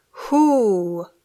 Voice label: "who", English